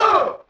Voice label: "uh", male